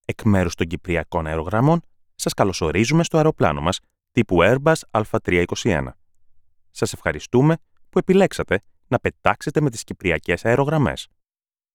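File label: Greek General; GoLocalise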